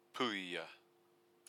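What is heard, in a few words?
"puia", Māori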